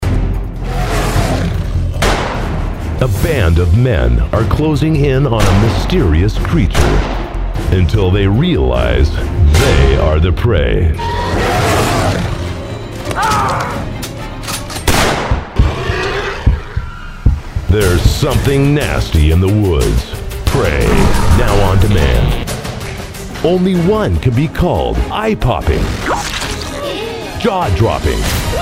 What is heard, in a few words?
Movie Game Trailer